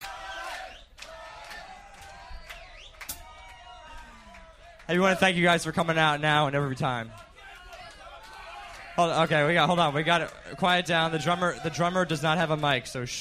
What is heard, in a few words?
Announcement Chant